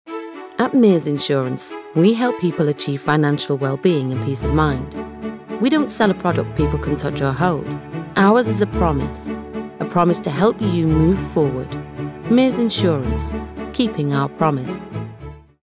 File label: professional informative sincere